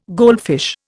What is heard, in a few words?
"goldfish", Indian